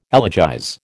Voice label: "elegize", American

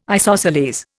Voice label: "isosceles", Indian

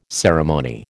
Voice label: "ceremony", American

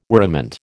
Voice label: "worriment", American

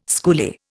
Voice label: "schoolie", Indian

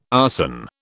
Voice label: "arson", British

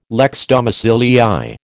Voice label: "lex domicilii", American

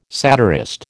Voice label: "satirist", American

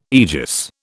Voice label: "aegis", British

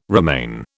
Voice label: "remain", British

